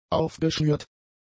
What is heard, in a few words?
"aufgeschnürt", German; adjectives